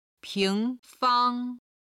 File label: "ping2fang1", chinese